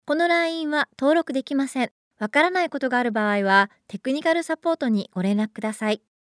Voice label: telephone corporate